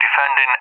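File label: "defending", English, UK; DCS; Allied Flight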